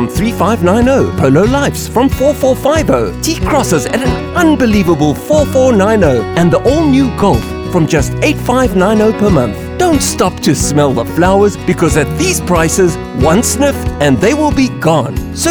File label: Spring Sale Radio Ad; Barons